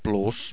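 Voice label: "plus", Finnish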